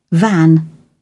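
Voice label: "van", British English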